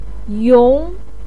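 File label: "yong2", Mandarin Chinese, pinyin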